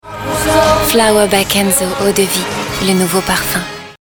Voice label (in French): pub TV FR; FlowerByKenzo2019